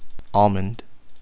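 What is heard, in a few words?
"almond", Filipino, male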